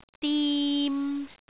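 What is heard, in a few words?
"dim3", Cantonese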